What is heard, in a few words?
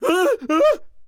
Enemy Generic Human Spawn Disbelief